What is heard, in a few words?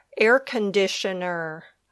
"air conditioner", English